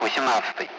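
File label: Speech, Russian, 8th; Allied Flight; DCS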